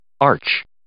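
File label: "arch", English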